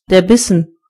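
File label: bit, German voices words; gcompris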